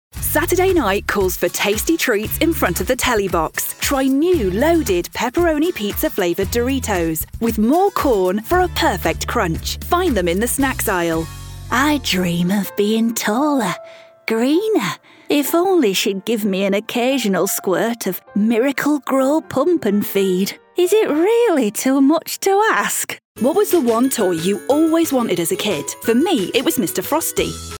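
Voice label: British English, female, Commercial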